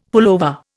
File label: "pullover", Indian; Dictionary